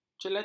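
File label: "celatu", Malay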